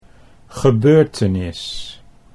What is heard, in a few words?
"gebeurtenis", Dutch